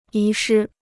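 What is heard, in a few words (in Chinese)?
"遗失" Chinese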